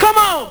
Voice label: "Come on!", 12281Hz; Sonic 3; M8; Genesis

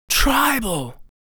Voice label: "Tribal", vocal phrases; Ultimate Vocals

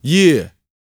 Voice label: YEAH, Vocal R&B & Dance, HIP-HOP; ILIO; Vocal Planet

